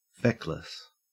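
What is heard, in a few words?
"feckless", Australian English; pronunciations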